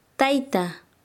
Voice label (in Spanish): "padre", Quechua Chanka